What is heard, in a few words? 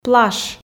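"плащ", Komi, female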